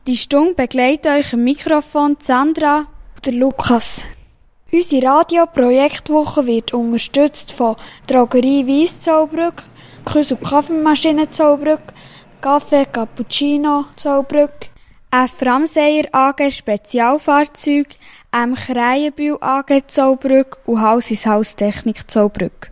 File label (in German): Begrüssung und Ansage Sponsoren; Mungnau 9